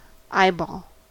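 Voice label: "eyeball", American English; pronunciations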